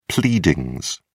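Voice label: "pleadings", British English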